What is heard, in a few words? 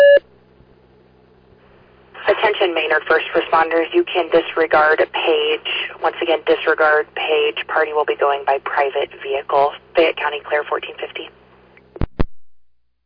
Fire, dispatch; Maynard